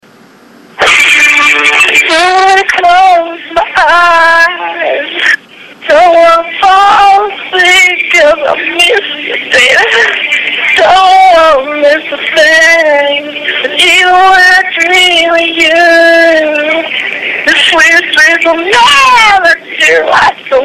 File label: voicemail